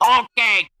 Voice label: "okay", expletives

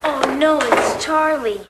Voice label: "oh no it's charlie", g-rated swears; Voice; expletives

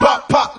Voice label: "rawk pawk", voice; ooh ahh

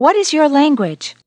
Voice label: what is your language female; questions

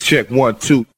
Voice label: check one two male; Voice; numbers and letters

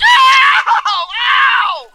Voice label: ow ow male; ooh ahh; ow ouch

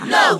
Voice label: "no", group; Voice; expletives

